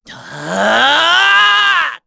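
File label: Vox Casting, Korean; Ezekiel; kingsraid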